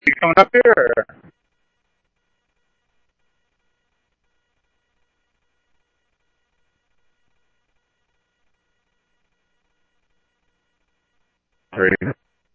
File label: Mutual Aid, scanner; G5 Interop MutualAid-InterS; H-Scottsdale